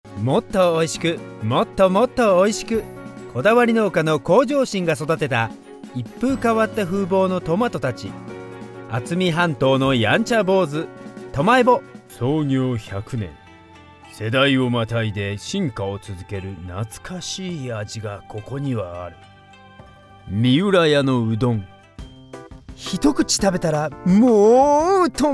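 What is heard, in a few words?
Japanese male Commercial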